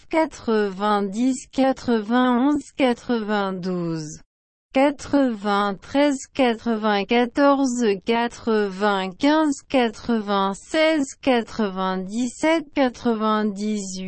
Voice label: french